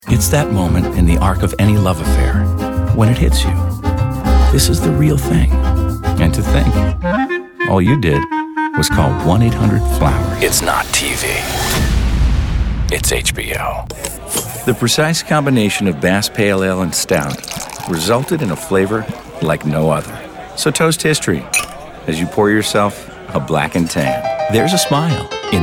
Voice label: men commercial; Warm, non-announcer, ranging from conversational to intense.